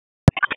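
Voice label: Dispatch 1, P25; wake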